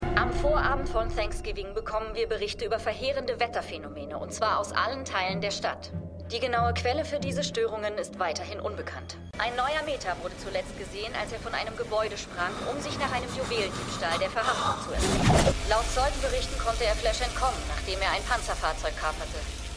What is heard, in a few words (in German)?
Nachrichtensprecherin; The Flash